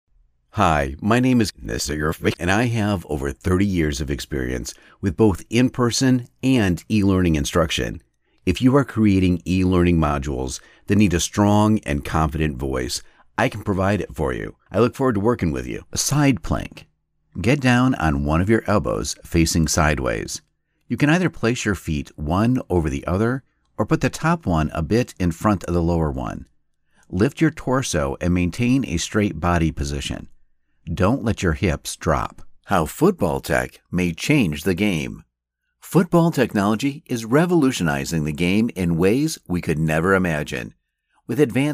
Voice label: us male; lektoring